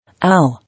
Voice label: "Owl" English